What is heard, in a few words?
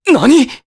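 Vox Japanese; Kasel; kingsraid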